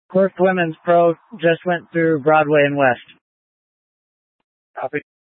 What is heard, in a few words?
Incident Tac 3, Scanner audio recording